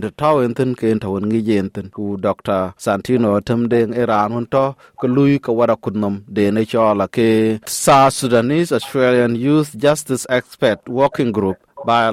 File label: Dinka; Akutnhom Kɔc Junup Thudan Australian Yïïth Riënythii Akut Kɔ̈c Leŋ ŋiëëc luui; SBS Dinka